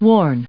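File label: "warn", English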